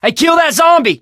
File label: fallen survivor, survivor voice; Left 4 Dead 2; Source Engine; video games